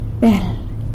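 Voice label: "bel" fish, San Lucas Quiaviní Zapotec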